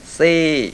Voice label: high falling tense, mpi